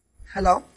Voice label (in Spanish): Voice Acting es